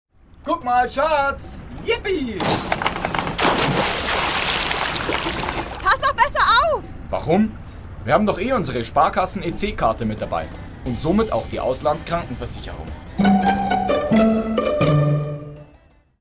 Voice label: Werbung Reminder; Sparkasse

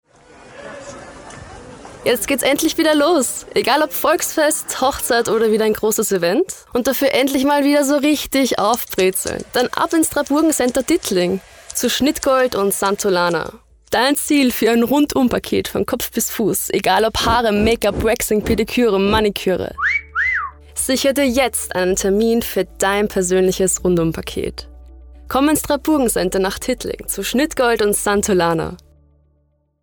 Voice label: Werbung; Santulana-Schnittgold

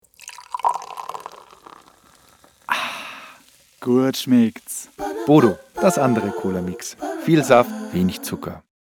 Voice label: Werbung Reminder

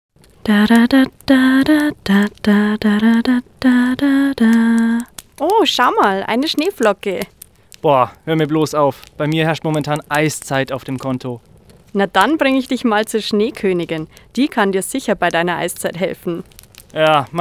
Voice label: Werbung Sparkasse

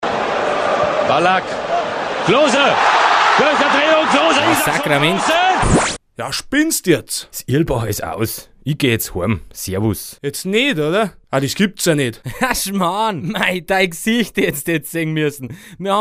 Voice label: Werbung; Irlbacher

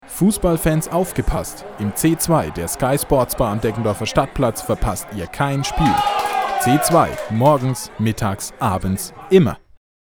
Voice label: Werbung Reminder

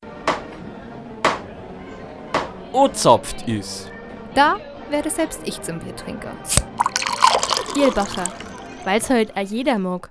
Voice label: Werbung Reminder; Irlbacher

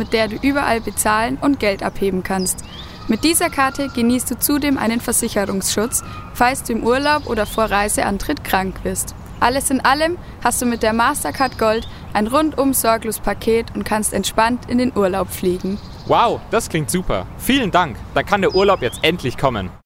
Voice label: Werbung Sparkasse

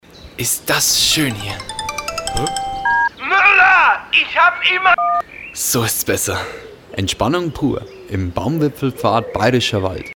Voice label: Werbung Reminder; Erlebnis Akademie

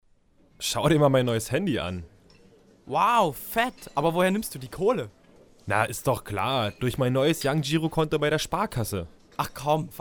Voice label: Werbung Sparkasse

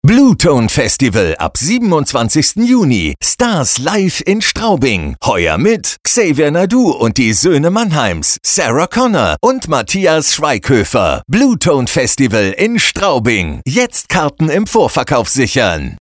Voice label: Werbung; Bluetone 2017

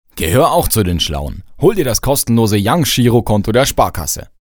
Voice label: Werbung Reminder; Sparkasse

